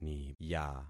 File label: "niya", concat syllables; Affixing experiment